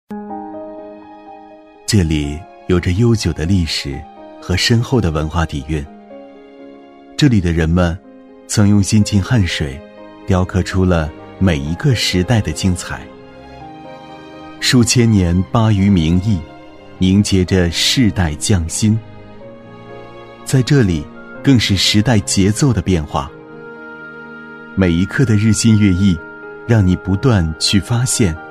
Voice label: 男, 宣传片, 儒雅璧山 田园都市, 磁性唯美; 男105-年轻厚重; 质感磁性

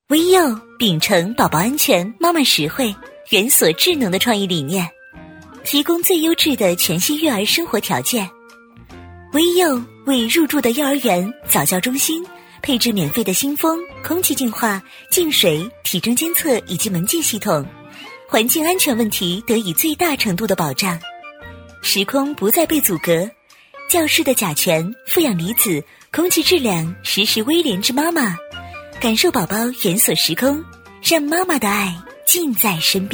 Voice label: 女 幼儿园广告 轻松介绍; 学校院校宣传

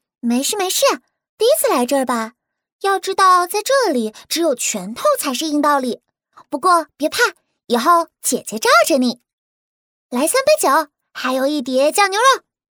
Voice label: 女 角色 萝莉

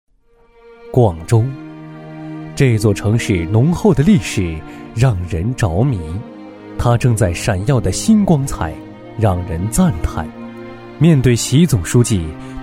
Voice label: 男 宣传片 抒情大气; 广州; 年轻活力; 质感磁性

